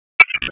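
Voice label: Dispatch, p25; WSO DISP 1; wake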